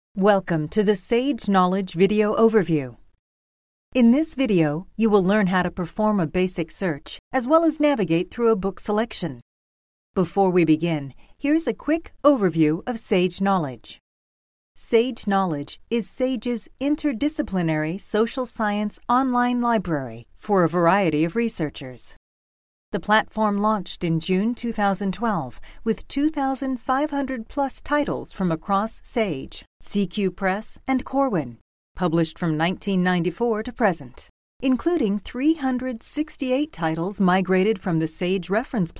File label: eLearning Segment; SAGE Knowlege